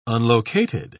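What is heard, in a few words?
"unlocated", English, British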